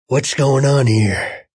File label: Whats Going On Here, Male; Talking Sound Effects; VoiceBox